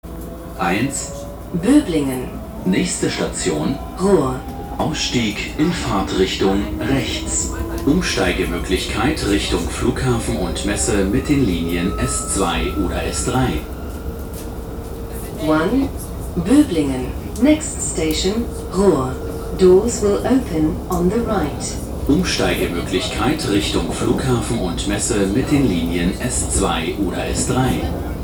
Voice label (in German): ansage